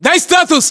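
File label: status check, battlechatter, Russian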